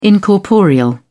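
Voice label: "incorporeal", English, British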